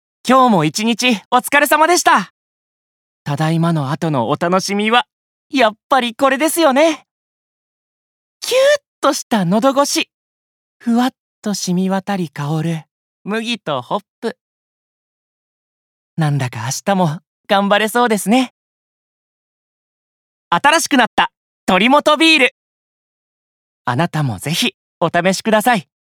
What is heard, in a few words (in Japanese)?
飲料CM